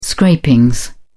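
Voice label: "scrapings", British English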